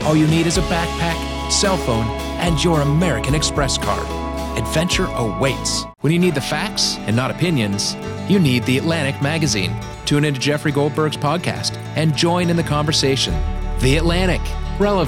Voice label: Commercial